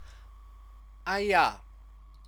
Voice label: "aya", Chinese; Chinese lessons